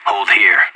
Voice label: radio bot hold; anarchist